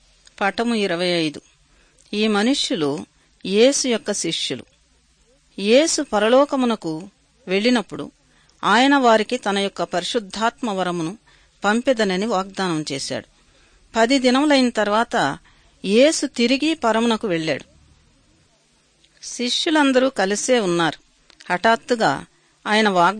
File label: good news telugu